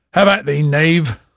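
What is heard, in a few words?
English male, voice; Pirates Of The Caribbean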